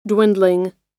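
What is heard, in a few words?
"dwindling", American English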